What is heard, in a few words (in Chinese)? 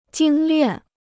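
"精练", Chinese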